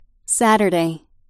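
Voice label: "Saturday", English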